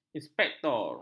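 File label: "inspektor", Malay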